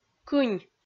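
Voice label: "кынь", Komi-Zyrian, female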